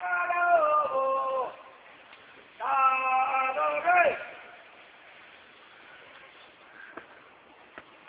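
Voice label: jiayou, Beijing Street Sounds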